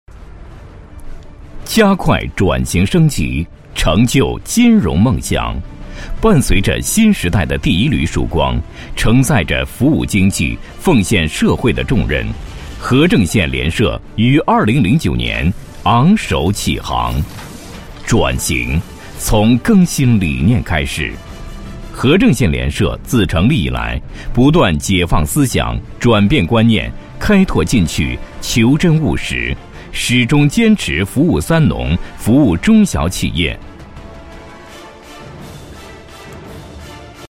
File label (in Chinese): 男 宣传片 解说词; 某县联社